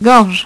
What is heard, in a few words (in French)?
"gorge", French; HyperSound™2